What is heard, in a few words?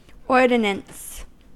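"ordinance", American English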